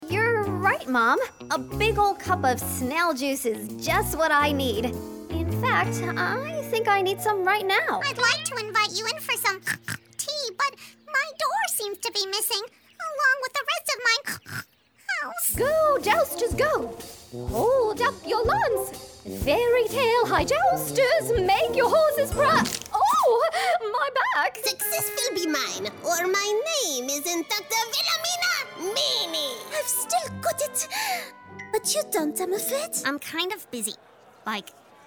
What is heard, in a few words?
Animation Voices